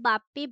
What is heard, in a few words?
Female